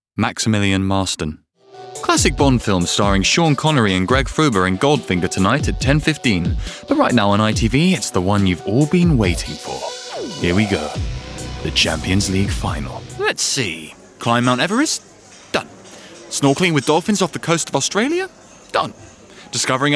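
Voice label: Male commercial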